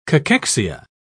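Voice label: "cachexia", British English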